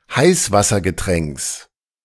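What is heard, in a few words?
"Heißwassergetränks", German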